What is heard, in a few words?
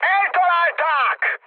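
Hungarian aircraft destroyed mood high, speech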